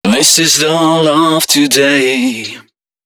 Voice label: male d#130, Dance Ultra Voice hooklines; Mutekki Media; Dance Ultra Voice VOL-1

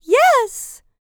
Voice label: LICKS, FEM SPOKEN; R&B & Dance; ILIO; Vocal Planet

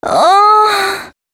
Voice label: female c#133, adlips

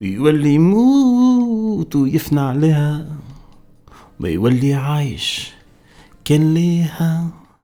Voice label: POET, POET VOICE, Arabian Traditions

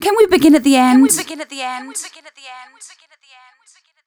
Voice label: f 140 spo, fem Vocals

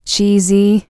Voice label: FEM. SPOKEN; R&B Dance

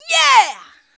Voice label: "Yeah", shout; Best Service; Hyper Dance

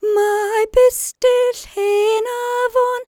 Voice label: WELSH F, World Voices

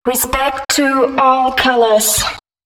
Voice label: female, spoken hooks; Mutekki Media; Dance Ultra Voice VOL-1

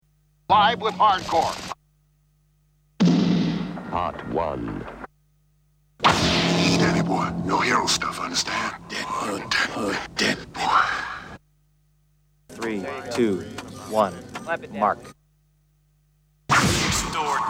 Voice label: Vocal Drops; Kniteforce; 1000 Samples Volume 1

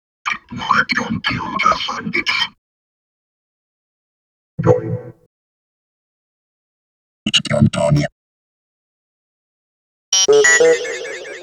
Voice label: Vocoder Speech; Bizarre Planet

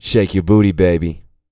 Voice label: bit, Vocal, R&B Dance, hip-hop; TB HIP-HOP

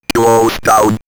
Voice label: Robot Close Down, robot vox; X-Static Goldmine

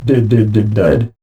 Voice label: DID DEAD, Funky Vocals; USB Soundscan vol.18; AKAI; COMPUTER

